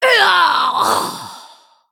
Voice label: Female Human Death